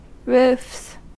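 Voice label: "roofs", US English; pronunciations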